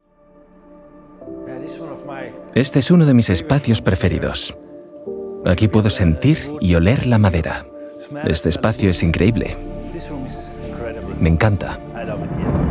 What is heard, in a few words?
voiceover